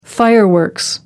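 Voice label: "fireworks", British English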